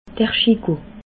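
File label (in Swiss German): "schigo", Bas-Rhin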